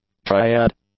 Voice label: "triad", English